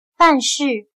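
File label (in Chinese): "办事" Mandarin Chinese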